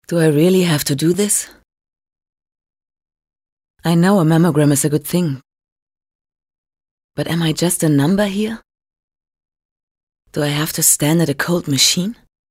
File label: VO female; ENGLISH; Philips Mammo; PHILIPPS